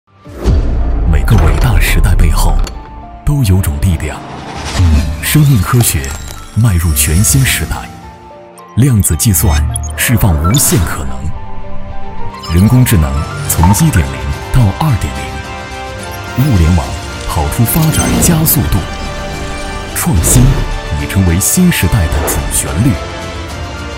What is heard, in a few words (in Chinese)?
广告; 黄鹤楼1916